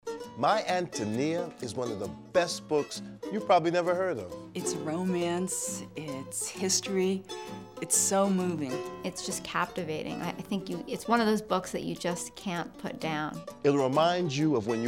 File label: radio